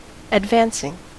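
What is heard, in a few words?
"advancing", US English; pronunciations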